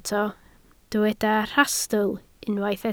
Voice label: "rhastl", Welsh